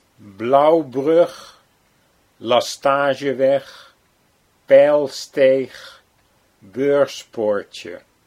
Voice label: "Blaauwbrug Lastageweg", Dutch